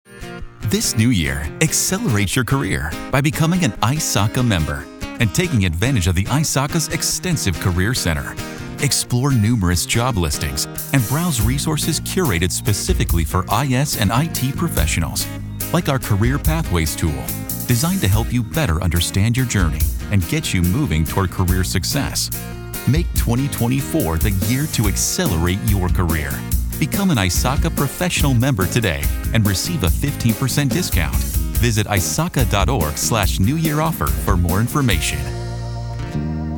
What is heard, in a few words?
Spot; Career Center